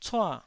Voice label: "cua4", Taiwanese